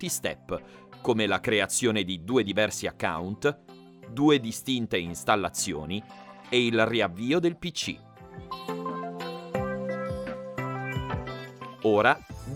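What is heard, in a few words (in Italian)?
Tutorial, Native Italian Voice Over Artist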